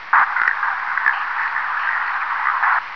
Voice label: Gr idle, Human Grunt